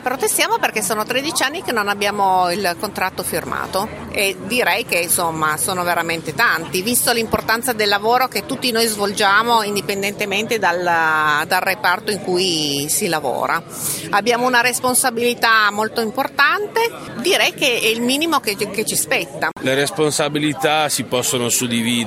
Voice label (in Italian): Vox Pop Sciopero; Humanitas